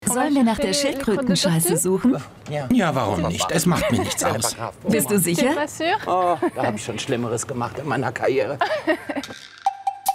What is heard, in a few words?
Voice Over; Hape und die 7 Zwergstaaten; Monaco; VOX